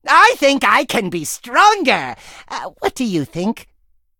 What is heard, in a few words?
level up, en